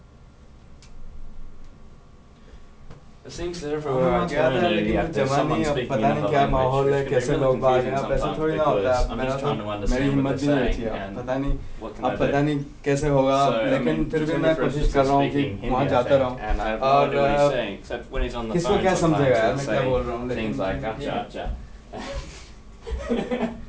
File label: array2 mic6; av16.3